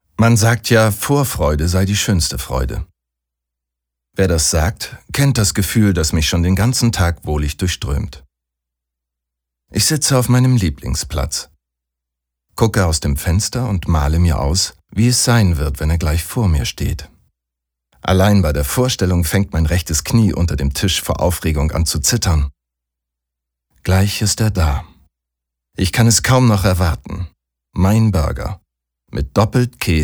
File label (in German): Frech; Kleine Freuden